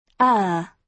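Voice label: "err", British English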